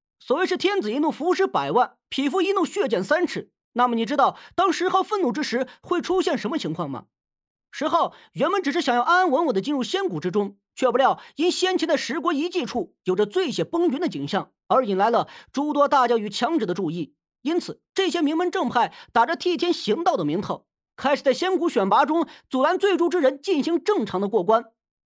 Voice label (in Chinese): 动漫 解说